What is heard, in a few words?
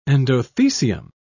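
"endothecium", British English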